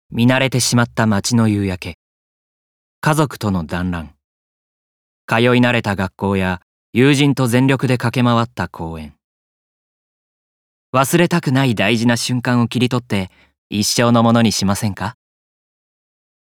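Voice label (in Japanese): 語り調CM, 大阪弁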